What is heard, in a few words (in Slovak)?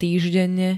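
"týždenne", Slovak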